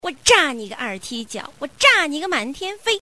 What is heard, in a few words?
bomb, woman; zhuandan